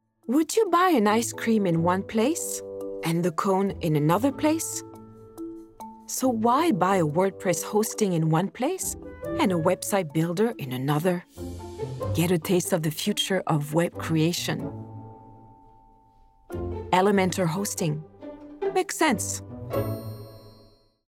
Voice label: female French English with French accent web hosting; Devine Voices